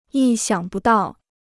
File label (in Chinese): "意想不到", Chinese